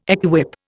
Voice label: "equip", Indian